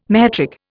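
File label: "metric", English, Indian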